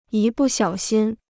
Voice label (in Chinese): "一不小心", Chinese